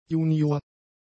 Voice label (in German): "junior", german; adjectives